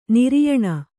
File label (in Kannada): "ನಾರಾಯಣ", Kannada